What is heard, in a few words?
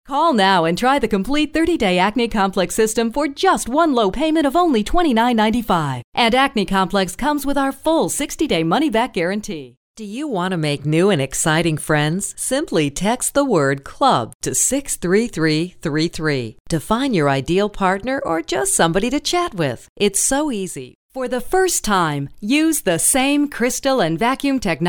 Infomercial